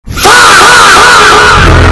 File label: fahhhhh but a wee bit louder